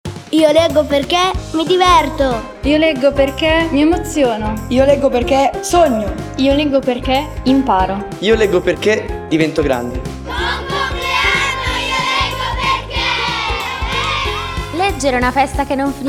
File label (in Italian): spot radio